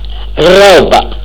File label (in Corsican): "robba", lingua